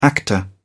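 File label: "actor", English, British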